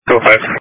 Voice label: Police Dispatch